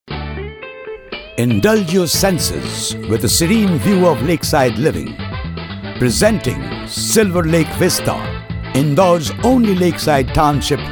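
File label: Bengali, Accented English; GoLocalise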